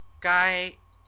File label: "gai", Chinese